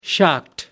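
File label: "shocked", British English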